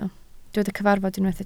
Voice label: "cyfarfod", Welsh